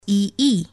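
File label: "ee", English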